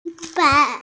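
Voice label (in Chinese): "chi1fan4", Mandarin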